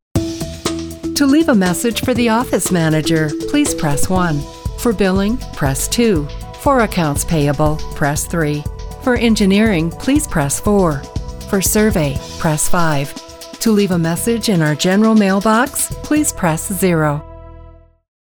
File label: auto-attendant sub-menu; Coastal Engineering